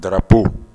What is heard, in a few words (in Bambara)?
"darapo", Bambara